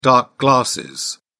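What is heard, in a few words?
"dark glasses", British English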